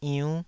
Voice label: "iunn5", taiwanese syllables